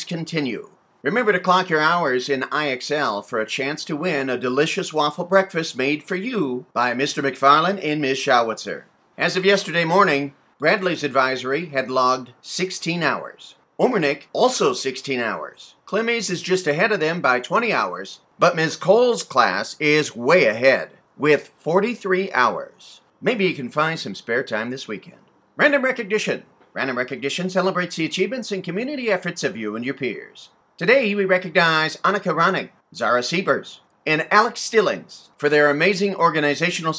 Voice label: Announcements